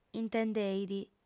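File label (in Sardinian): "intendeit", female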